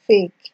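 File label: "fake", Nigerian Pidgin, female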